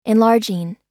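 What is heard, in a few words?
"enlarging", American English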